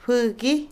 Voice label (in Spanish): espuma, Otomí, mujer; Vocabulary; INALI